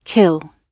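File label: "kill", British English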